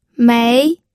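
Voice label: "mei", second tone, pinyin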